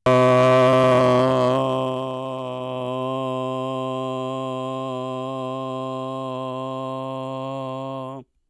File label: aphemia